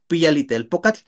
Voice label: "piyalli telpokatl", Náhuatl; hola joven; hello young man